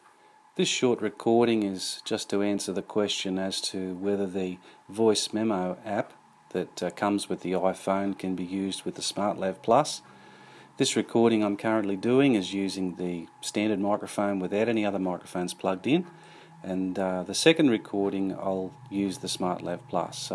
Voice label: Recording Using In build Mic